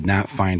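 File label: noQ find, American English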